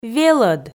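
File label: "велӧд", Komi, female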